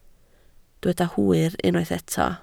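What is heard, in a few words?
"hwyr" Welsh, spoken word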